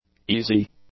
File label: "easy", English; adjectives